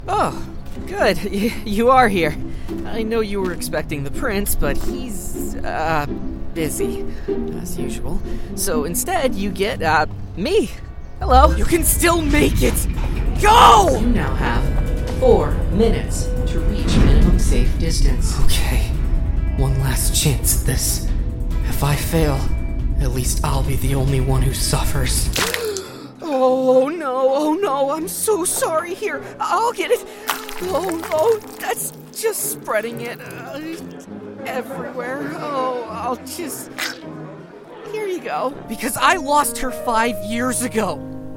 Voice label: Character